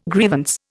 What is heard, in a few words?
"grievance", Indian